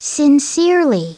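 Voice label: "sincerely", English; SoftKey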